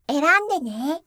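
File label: "eranndene", Japanese; quiz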